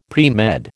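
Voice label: "premed", American English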